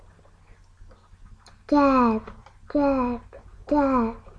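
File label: "jab", spoken word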